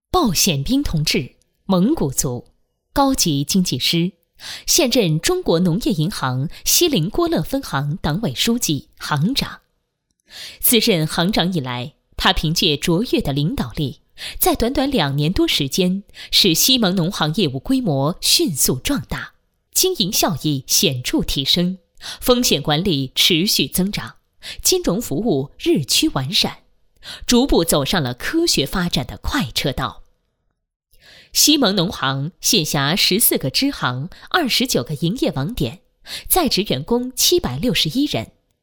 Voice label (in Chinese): 人物干音; 人物样音; 伟恒影视